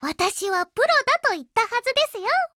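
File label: WIN Japanese; 少女前线:欧文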